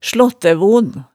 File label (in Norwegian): "slaattævon", hemsedalsmaalet